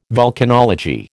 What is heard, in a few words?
"vulcanology", American